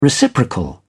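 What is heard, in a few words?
"reciprocal", British English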